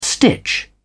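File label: "stitch", English, British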